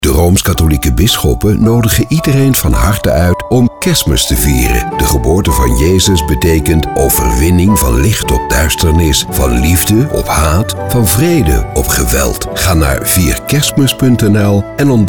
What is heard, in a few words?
Commercial; IDD Vier Kerstmis 2021 NPO Radio STER